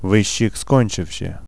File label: RaceOver, vocals pl; fan made